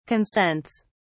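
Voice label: "consents", British English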